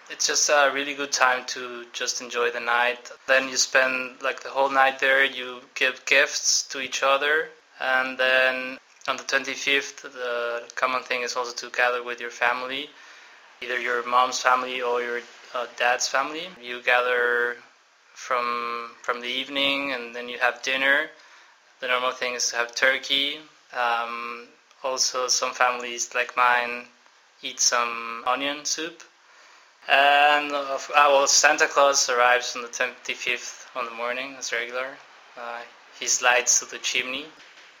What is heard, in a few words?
Christmas in Mexico english